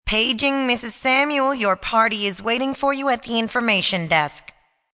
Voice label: Paging, PA, female; Party Sound Effects; Paging Samuel FX